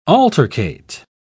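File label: "altercate", British English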